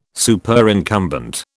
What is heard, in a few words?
"Superincumbent", British